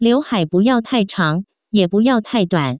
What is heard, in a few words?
"liuhai", Taiwan Chinese; salon; jianfa